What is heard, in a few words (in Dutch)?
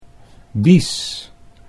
"bis", Dutch